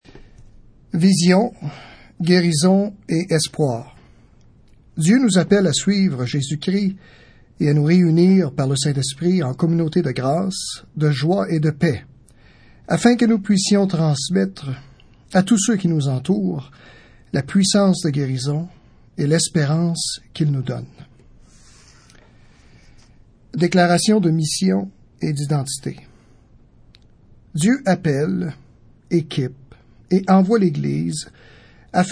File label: French; Purpose Spoken Versions